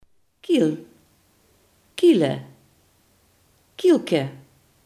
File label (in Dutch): "keg", Limburgs